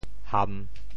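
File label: "ham3", Teochew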